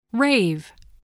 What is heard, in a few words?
"rave", english